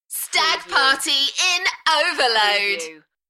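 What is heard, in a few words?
UK female, Enthusiastic, DJ vocals; Stag party in overload; beanstalk audio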